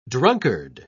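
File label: "drunkard", British English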